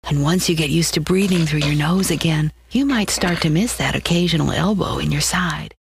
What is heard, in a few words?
soft spoken